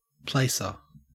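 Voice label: "placer", Australian English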